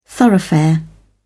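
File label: "thoroughfare", British English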